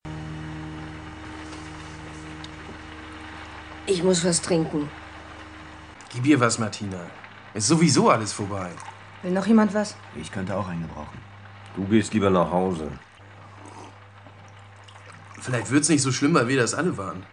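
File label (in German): Hörspiel auf Norddeutsch; Deutschlandradio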